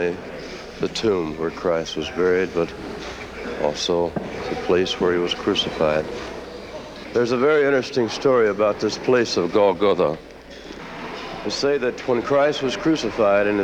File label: Church of the Holy Sepulchre (Narrative)